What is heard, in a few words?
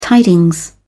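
"tidings", British English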